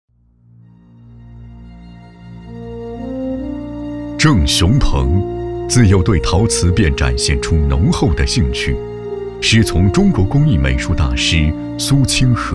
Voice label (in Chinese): 男 人物讲述; 我们的故事